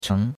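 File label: "cheng2", Chinese; Cours-de-Chinois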